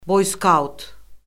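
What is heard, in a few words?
"boyskaut", Azerbaijani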